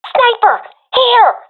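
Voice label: sees sniper, professional female; vo; Counter Strike Model Pack; CS:GO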